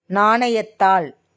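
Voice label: "நாணயத்தாள்", Tamil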